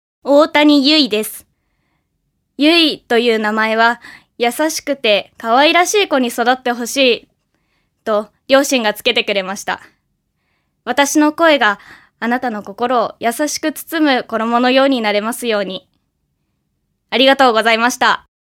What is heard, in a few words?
female voice freetalk